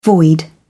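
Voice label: "void", British English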